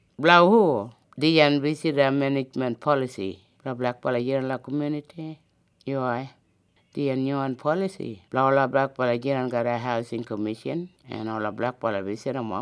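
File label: Housing Eastside Kriol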